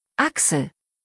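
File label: "Axel", German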